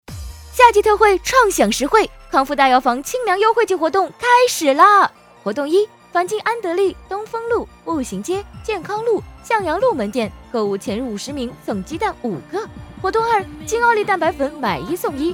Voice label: 促销

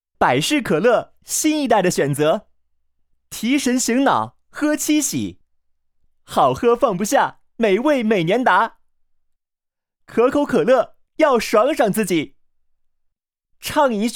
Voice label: 品质广告美食饮品类

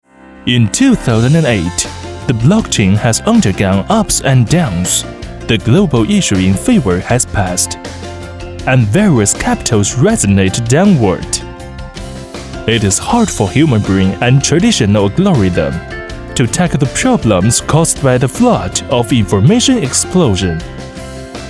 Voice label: 英文配音

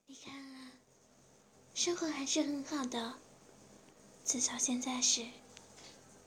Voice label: 采访录音

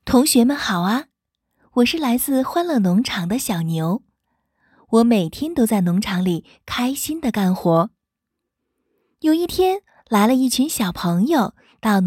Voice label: 儿童; 有声读物-儿童成品

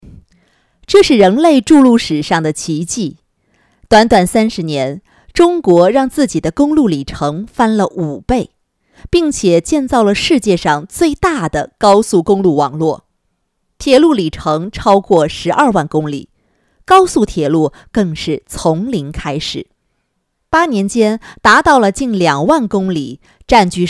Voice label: 高铁宣传样音

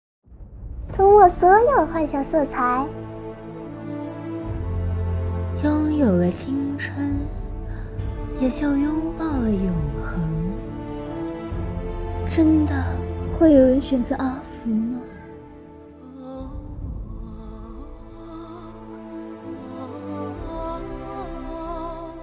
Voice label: 配音; 王者荣耀

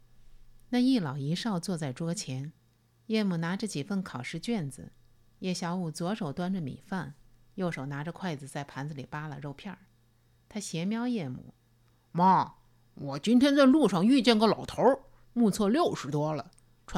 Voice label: 母子

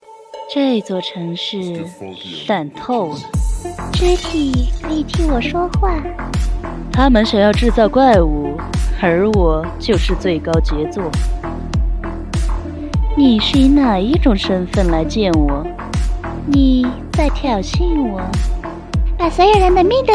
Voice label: 不同声色 动漫

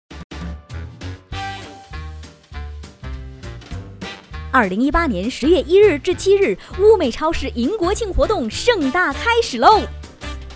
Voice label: 商场促销; 物美

